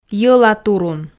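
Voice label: "йолатурун", Komi, female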